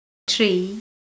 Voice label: "tree" Manx